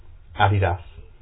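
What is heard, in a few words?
"Adidas", British English, male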